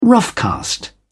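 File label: "roughcast", British English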